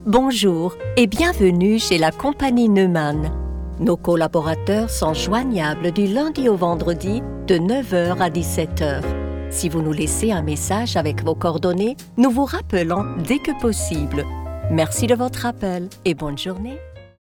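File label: french interactive voice response; professional Swiss voice actor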